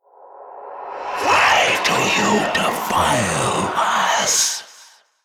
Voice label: Whispers; Warframe; Golem Quest; Golem Trial Monster; Jordas